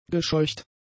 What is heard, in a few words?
"gescheucht", German; adjectives